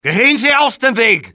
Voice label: stay out, civ male; XBOX

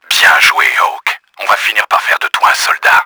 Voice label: happy, french; KRAMER; Battle Engine Aquila

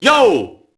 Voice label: mobster alert; enemy; Max Payne

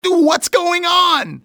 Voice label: going on, english male; XBOX; civ

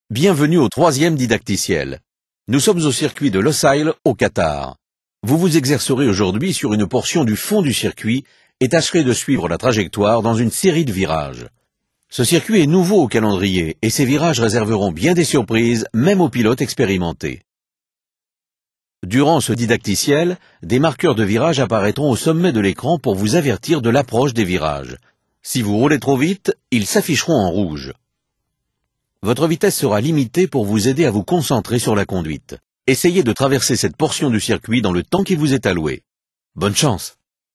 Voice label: cornering french; MotoGP 3

